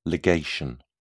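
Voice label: "ligation", English, British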